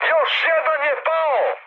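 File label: Serbian player killed enemy mood high, speech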